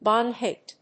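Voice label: "bong hit", English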